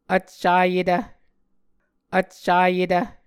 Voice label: "vccayetv", muscogee; Mvskoke Words with Repeated Consonants; A Dictionary of Creek/Muskogee; SIL International

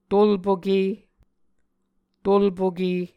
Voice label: "tolpoke", Muscogee

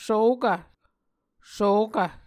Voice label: "svokv", Muscogee

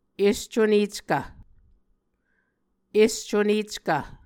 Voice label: "escuneckv", Muscogee

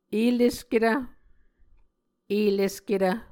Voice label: "elesketv", Muscogee

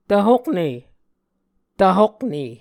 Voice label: "tvhokne", Muscogee

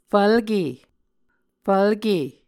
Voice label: "fvlke", muscogee

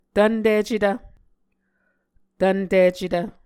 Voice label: "tvnticetv", muscogee

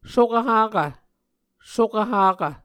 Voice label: "sokv-hakv", Muscogee

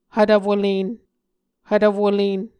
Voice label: "hvtvwolen", Muscogee

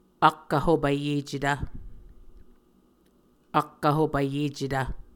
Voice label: "ak vhopayecetv", Muscogee

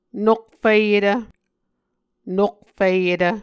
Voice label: "nokfiyetv", Muscogee

